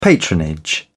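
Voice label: "patronage", British English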